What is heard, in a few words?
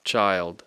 "child", English